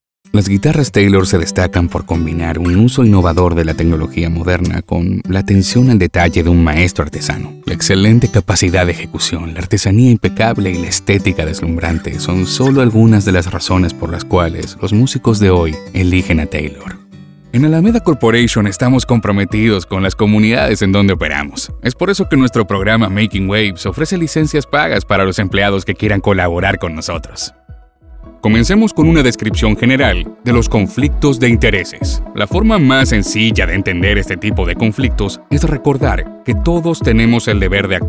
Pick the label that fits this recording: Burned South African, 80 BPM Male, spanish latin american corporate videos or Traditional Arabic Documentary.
spanish latin american corporate videos